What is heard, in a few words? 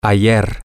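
yesterday, spanish, male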